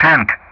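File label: "tank", English; Ka-50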